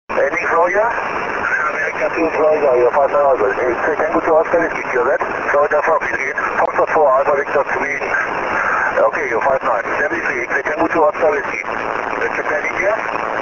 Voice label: on 6m SSB

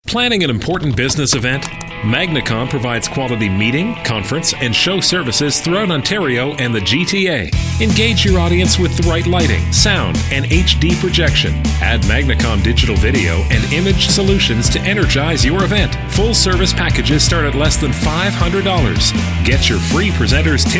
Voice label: radio commercial; magnacom

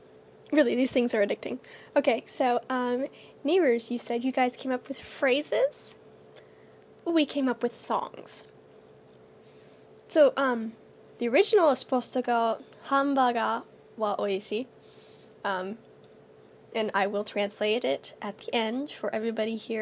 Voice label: japanese and eating

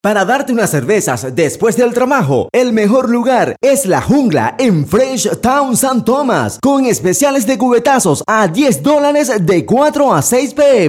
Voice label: French, spot para radio voiceover; French Taun San Tomas

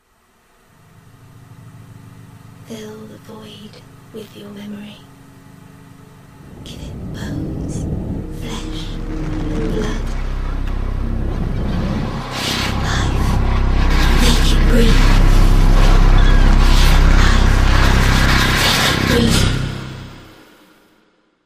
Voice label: necromantic voiceover

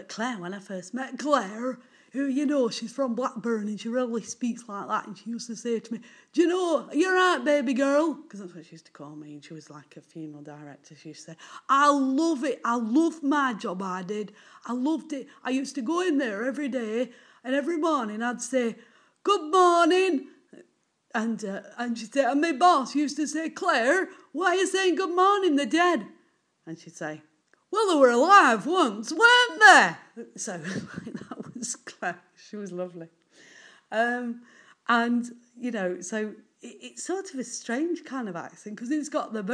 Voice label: accents and voices

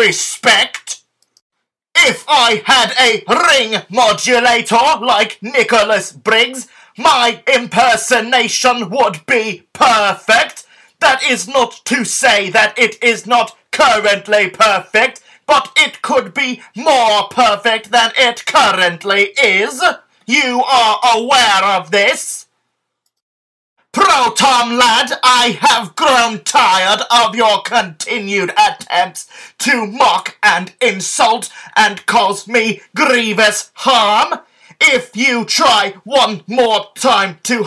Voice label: dalek voices

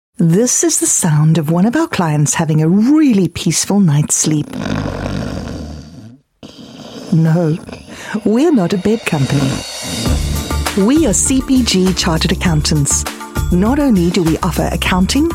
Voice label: radio advert

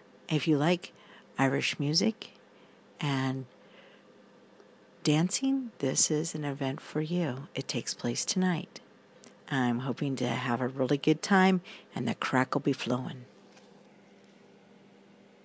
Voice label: modern celtic christmas announcement

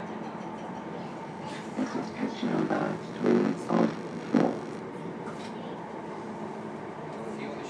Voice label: distorted train announcement; cyberman

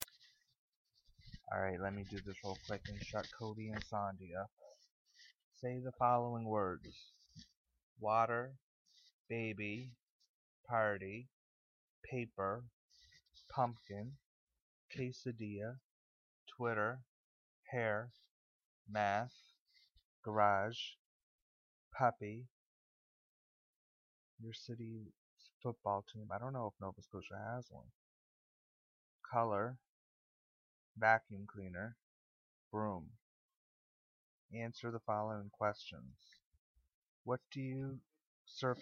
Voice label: accent challenge

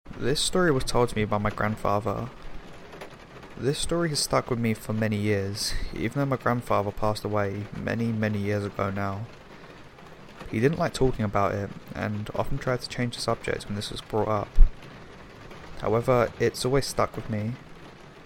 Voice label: spooky horror stories in rain ambience

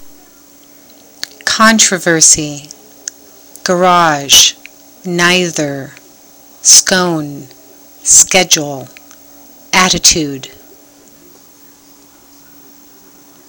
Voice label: honolulu hawaii female

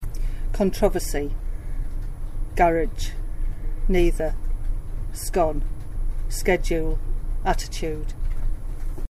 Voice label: Middlesbrough England female

